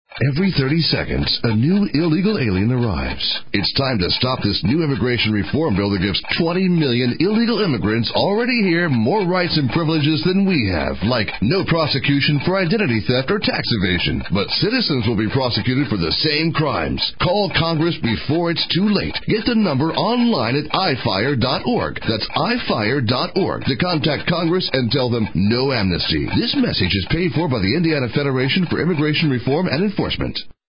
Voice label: ad